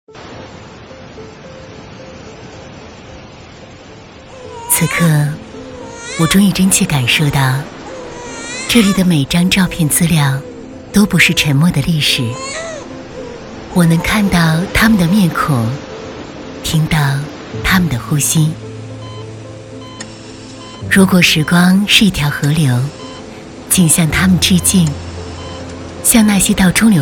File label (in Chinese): 女 情感讲述; 岁月之光